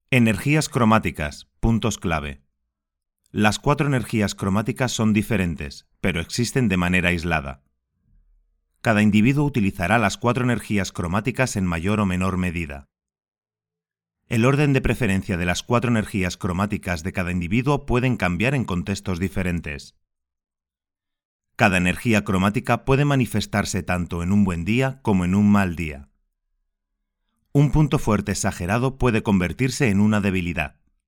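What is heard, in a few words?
European Spanish narrative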